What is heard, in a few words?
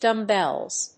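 "Dumb bells", English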